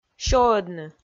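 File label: "сёӧдны", Komi, female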